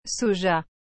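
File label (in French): "soja", French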